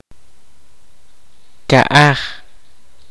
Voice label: kaqchikel; Ranch